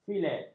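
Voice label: "filet", Malay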